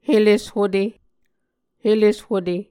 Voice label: "heleshute", Muscogee

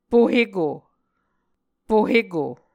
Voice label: "poheko", Muscogee

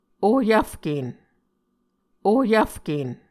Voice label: "ohyvfkEn", Muscogee

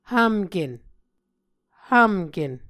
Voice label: "hvmken", Muscogee

